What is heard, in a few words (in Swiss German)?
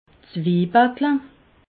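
"zwibackel", Alsatian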